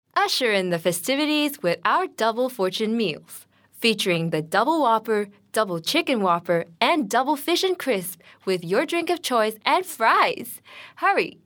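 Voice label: FVO ENG Read Announcer, female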